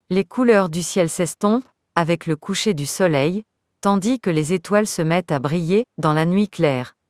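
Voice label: French, France; iOS 26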